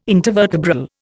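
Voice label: "intervertebral", Indian English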